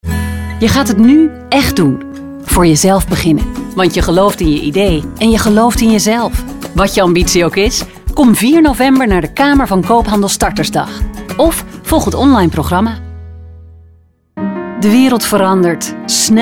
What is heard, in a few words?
Voice over